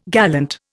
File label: "gallant", Indian